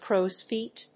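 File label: "crows-feet", English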